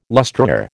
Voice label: "lustreware", American